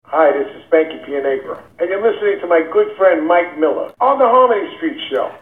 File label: promo